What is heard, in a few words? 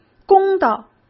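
"公道", Mandarin Chinese; chinese flashcards; hsk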